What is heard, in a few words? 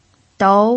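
"dou", Mandarin Chinese, second tone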